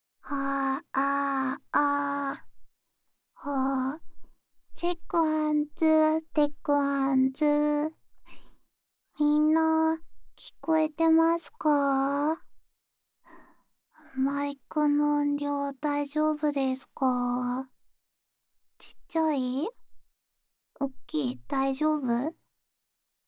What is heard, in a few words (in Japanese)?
ウィスパー・耳かき・耳吹き